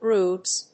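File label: "grooves", English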